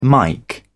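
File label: "mike", British English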